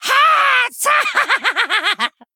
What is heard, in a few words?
Male Goblin Attack